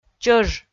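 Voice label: "чӧж", Komi, female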